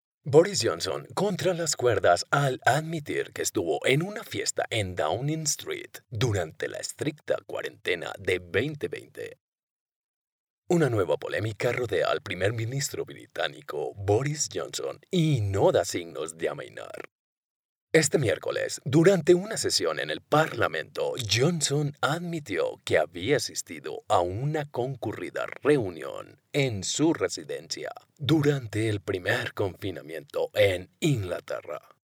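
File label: Spanish Spain, male, voice over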